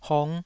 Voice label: "hong5", taiwanese syllable

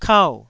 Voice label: "kau4", taiwanese syllable

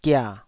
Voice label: "gia3", Taiwanese syllable

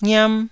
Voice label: "ngiam1", taiwanese syllables

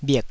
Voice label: "bhik3", taiwanese

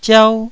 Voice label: "ziau1", Taiwanese syllable

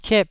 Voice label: "kiep4", taiwanese syllables

